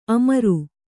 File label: "ಅಮರ", kannada